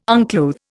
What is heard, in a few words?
"unclothe", Indian